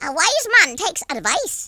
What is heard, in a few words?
orders, Irish; speech